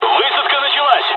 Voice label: dialog, dialogs russian; War Thunder

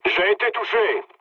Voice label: aircraft damaged mood low, speech fr; War Thunder

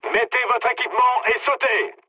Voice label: bailout mood low, speech fr; War Thunder

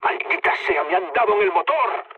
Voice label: damaged engine, mood low, speech; War Thunder

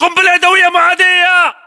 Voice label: inform incoming grenade, english battlechatter

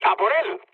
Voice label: attack position, mood med, speech; War Thunder

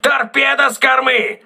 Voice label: torpedo rear, crew ships russian

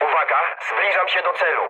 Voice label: near waypoint mood med, speech pol; War Thunder

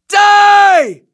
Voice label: Elder Charge, english voiceovers

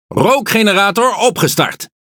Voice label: NL Speech Consumable Smoke Start; World Of Warships

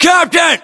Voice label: rank captain, us; localized english; battlechatter

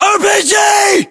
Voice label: threat rpg, US

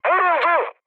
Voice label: aircraft destroyed, mood med, speech tur; War Thunder

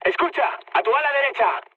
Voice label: right wing, mood med, speech; War Thunder

